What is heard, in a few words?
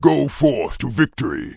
Orc Male Standard NPC Farewell